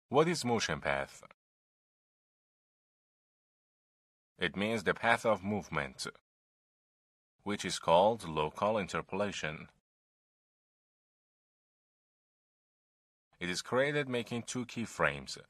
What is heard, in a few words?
narrator